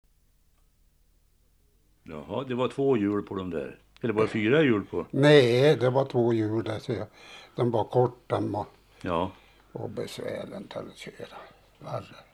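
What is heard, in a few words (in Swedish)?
Segersta Hälsingland, dialekter; Folkminnen; Svenska sagor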